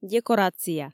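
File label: "декорация", Komi, female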